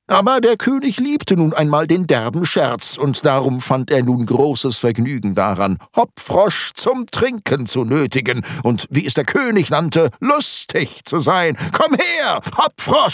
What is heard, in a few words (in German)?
hoerbuch; poe; Muttersprache Deutsch, Englisch/UK